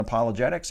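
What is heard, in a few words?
"apologetics", US English; pronunciations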